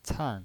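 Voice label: "can", Mandarin Chinese, fourth tone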